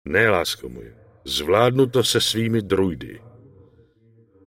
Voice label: Night Elf Male Wait Here; wowpreklad; czwow; NightElfVocalMale